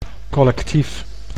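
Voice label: "collectief", Dutch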